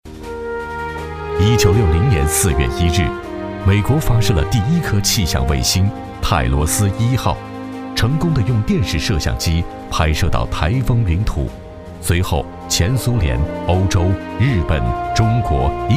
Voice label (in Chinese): 纪录片; 卫星记录